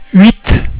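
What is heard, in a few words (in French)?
"huit", French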